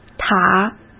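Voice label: "塔", Mandarin Chinese; chinese-flashcards; hsk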